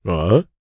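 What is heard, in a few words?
Vox Deny, Japanese; Gau; kingsraid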